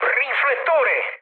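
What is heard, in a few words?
Italian bomb success mood med, speech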